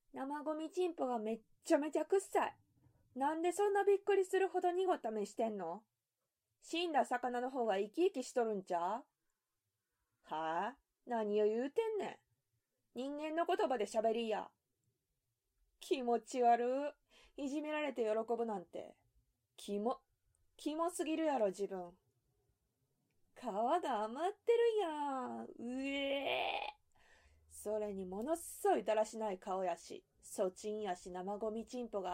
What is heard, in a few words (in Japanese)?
関西弁少女のイヤミ; 綾川ちゆきがだいちゅきっ